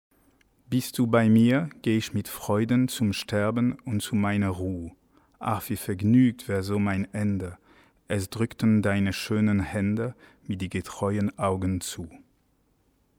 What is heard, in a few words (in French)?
texte rapide; bist du bei mir; diomedes oder die triumphierende unschuld bwv 508 anh ii 114; chantons baroque